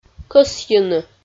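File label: "кӧсйыны", Komi, female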